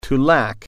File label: "lack", English